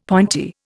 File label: "pointy", Indian; Dictionary